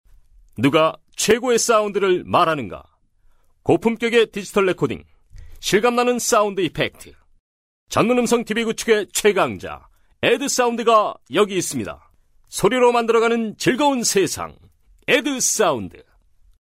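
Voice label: Korean, male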